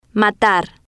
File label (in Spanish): "matar", Spanish